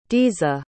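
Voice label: "diza", English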